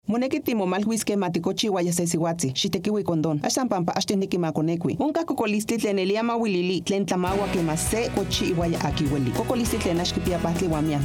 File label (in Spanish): Nahuatl